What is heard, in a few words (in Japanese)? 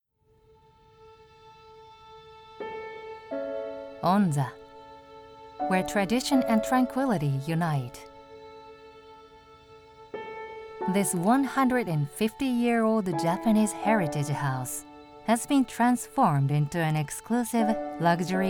ボイスサンプル 古民家宿 EN